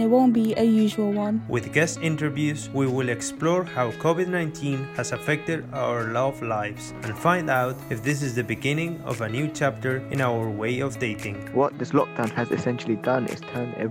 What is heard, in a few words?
Podcast Promo